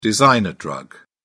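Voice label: "designer drug", British English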